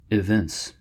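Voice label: "evince", US English; pronunciations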